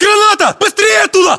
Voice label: inform incoming grenade, battlechatter, ru; localized english

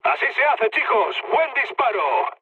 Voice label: player killed enemy, mood med, speech; War Thunder

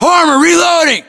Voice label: inform reloading, US

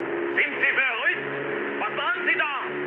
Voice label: player killed ally, mood med, speech ge

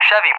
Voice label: "Chevy", ENG, AWACS; Callsign; DCS

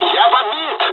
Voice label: dialogs russian; War Thunder

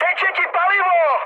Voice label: tank damaged, speech cze, mood high; War Thunder

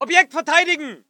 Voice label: voice ger infantry

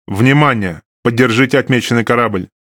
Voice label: RU Speech Quick Commands Ally Ship; World Of Warships; USSR Ovechkin

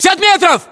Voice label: threat distance, ru

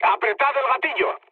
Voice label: attack position, mood low, speech; War Thunder

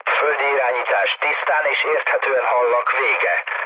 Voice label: hun chat, speech hun; War Thunder

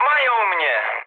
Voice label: aircraft destroyed mood low, speech pol; War Thunder

